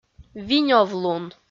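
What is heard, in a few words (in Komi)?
"винёвлун", Komi, female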